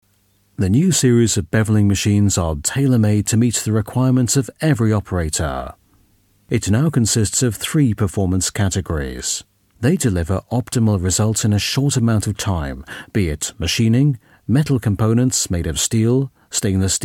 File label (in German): Maschinerie, englisch sprecher